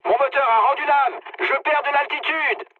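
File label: French damaged engine mood med; War Thunder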